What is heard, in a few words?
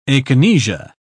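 "akinesia", British English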